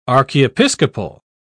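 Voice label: "archiepiscopal", English, British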